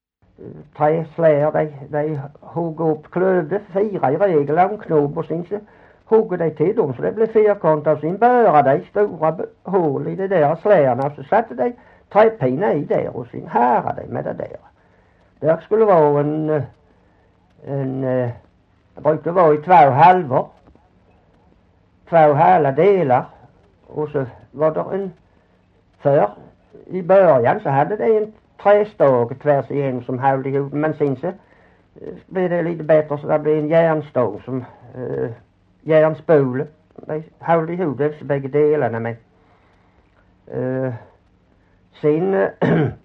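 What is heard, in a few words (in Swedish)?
Hörja Skåne, svenska sagor dialekter; Folkminnen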